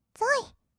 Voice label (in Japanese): ぞい, kawaii voice; ASMR; 色々なワンショット; 1200 kawaii voice!!